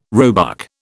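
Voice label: "roebuck", British English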